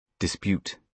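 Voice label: "dispute", British English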